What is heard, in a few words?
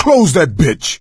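close the door, male; Left 4 Dead 2; coach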